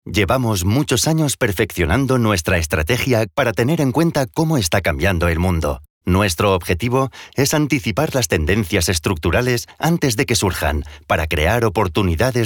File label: es spanish corporate